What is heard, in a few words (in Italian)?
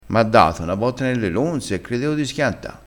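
"lonze", crespinese dialettale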